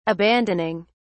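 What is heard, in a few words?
"abandoning", British English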